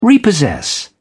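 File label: "repossess", British English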